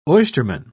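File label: "oysterman", British English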